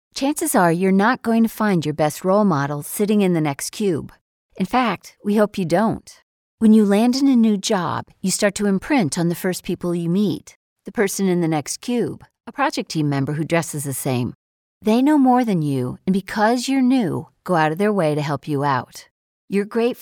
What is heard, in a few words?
elearning